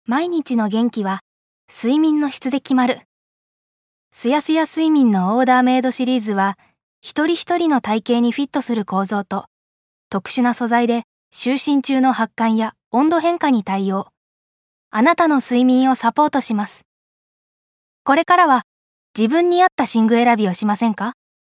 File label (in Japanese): 寝具CM